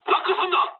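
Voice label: Japanese bailout mood low; War Thunder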